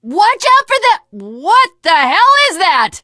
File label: teengirl, voice; Left 4 Dead 2